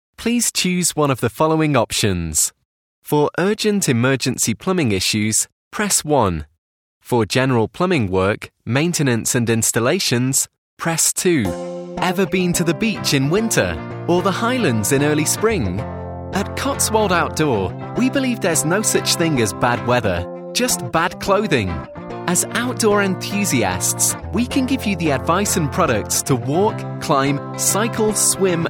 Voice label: voiceover IVR showreel